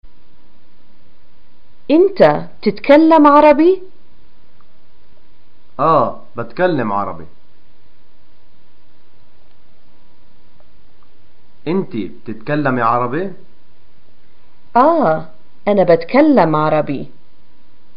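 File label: do you speak arabic, Palestinian Arabic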